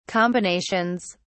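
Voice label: "combinations", British English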